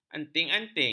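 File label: "anting-anting", Malay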